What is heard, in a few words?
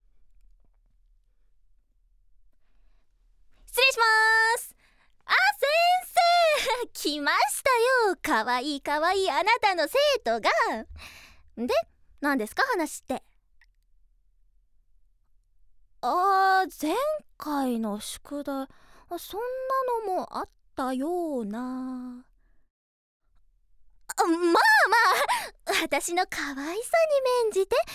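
voice sample, flippant person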